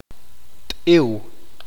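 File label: "águila", Q'eqchi'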